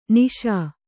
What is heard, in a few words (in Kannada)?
"ನಿಷಾ", Kannada; niṣā; noun; intoxication; inebriation.